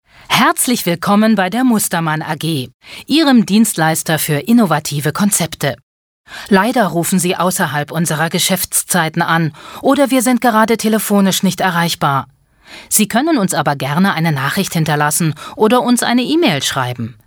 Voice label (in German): telefonansagen